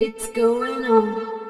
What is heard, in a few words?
VOICE FX, Drum Bass, 160; eJay